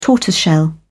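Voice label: "tortoiseshell", English, British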